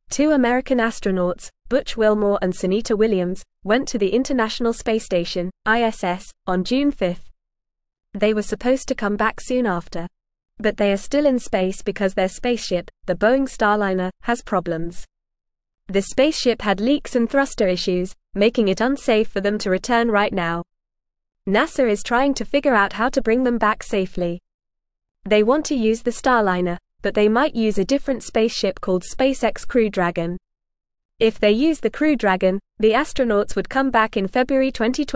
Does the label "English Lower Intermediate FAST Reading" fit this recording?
Yes